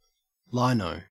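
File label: "lino", Australian English; pronunciations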